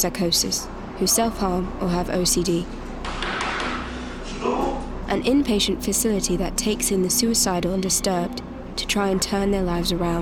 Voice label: Mental Health Doc UK